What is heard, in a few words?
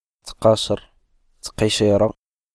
"chaussette", Darija